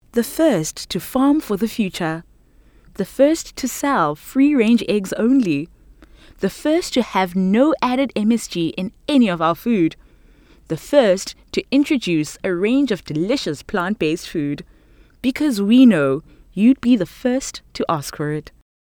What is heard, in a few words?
English Conversational; OSM Talent